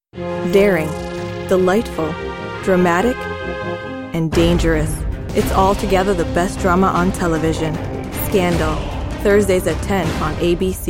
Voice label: Promo

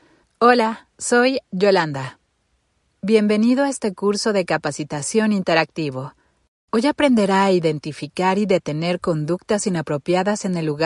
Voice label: e-learning

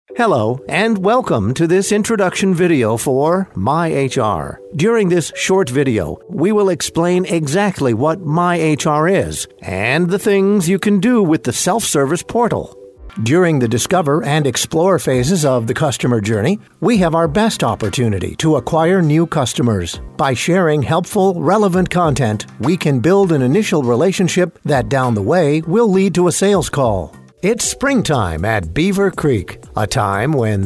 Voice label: EXPLAINER

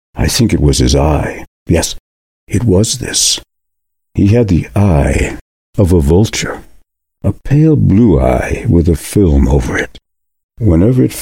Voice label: audiobook

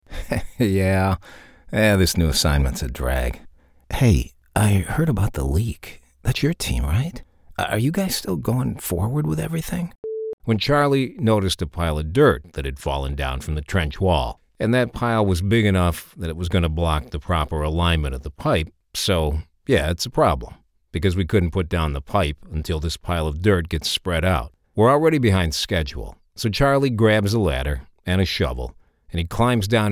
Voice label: Animation Acting

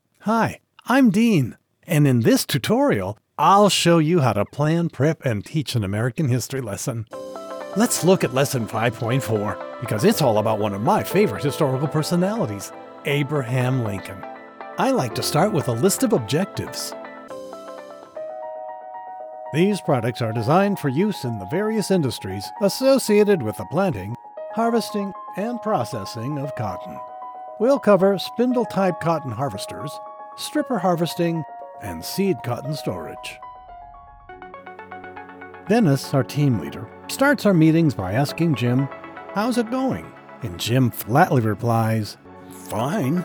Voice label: e-learning

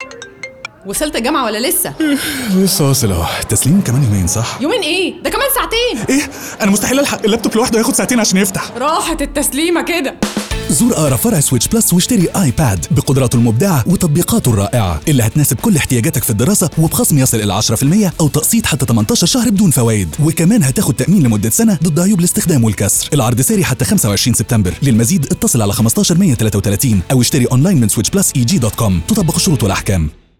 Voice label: Radio Copy; SwitchPlus

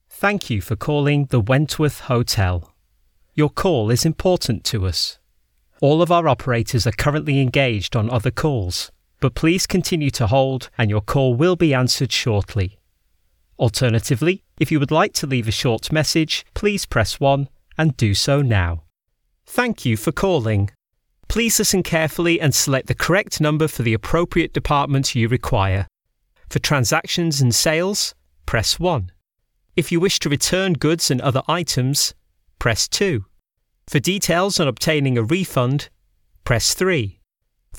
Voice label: IVR

